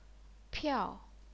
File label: "piao4", chinese pinyin